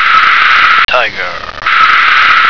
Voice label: "tiger", American